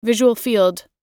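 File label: "visual", English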